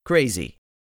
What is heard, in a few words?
"CRAZY", english; 3000 words